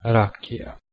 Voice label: "rakja", Proto Germanic